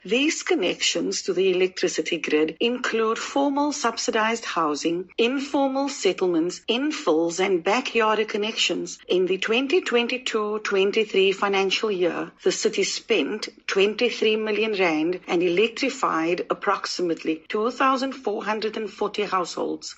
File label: ENG; newsflash